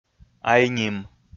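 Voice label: "айним", Komi, male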